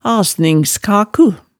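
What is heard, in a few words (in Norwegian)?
"asningskaku", Hemsedal dialect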